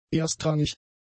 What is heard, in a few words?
"erstrangig", German; adjectives